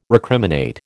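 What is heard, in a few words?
"recriminate", American English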